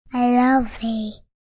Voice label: Toddler "I Love You"; Toddler says; Children Sound Effects

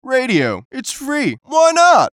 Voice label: VOICE COMICAL; Funny Sound; Radio Voice; Blastwave

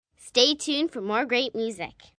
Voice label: Stay Tuned For More, Sales Pitch, 1 girl, 9 yrs

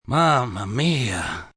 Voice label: Mama Mia, reaction, negative, male, Italian; Exclamation

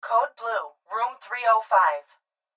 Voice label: Hospital Pa Voice, Hospital Pa Female- Code Blue; Pa Announcement; Emergency; Room 305

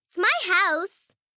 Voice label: Its My House, 1 girl, 9 yrs; Talking Sound Effects; VoiceBox; Twistedtracks

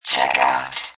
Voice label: Robot Voice "Checkout"; Robot Sound Effects; Lynne Music

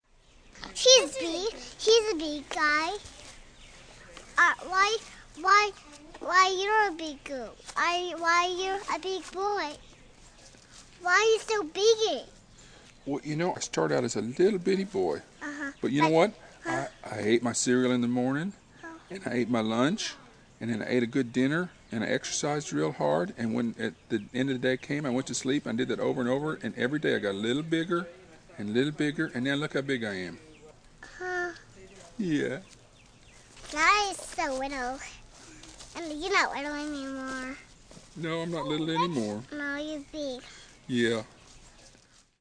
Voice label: Conversation, toddler asks adult; Talking; Voice Box

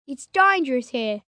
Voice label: Aussie Dangerous, 1 boy, 11 yrs; Talking

